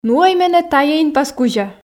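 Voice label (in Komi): нуӧй менӧ тайӧ инпас кузя, Komi, female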